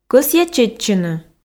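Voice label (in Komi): кӧсъя чеччыны, Komi, female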